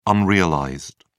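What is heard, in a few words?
"unrealized", British English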